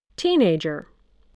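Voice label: "teenager", English word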